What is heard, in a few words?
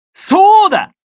happy